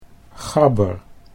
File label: "gabber", Dutch